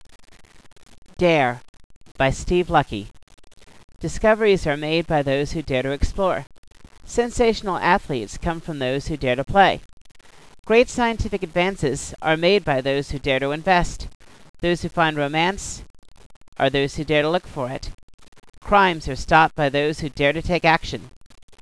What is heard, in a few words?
poem spoken